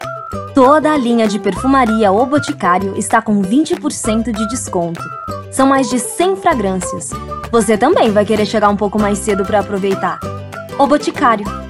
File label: Portuguese Brazilian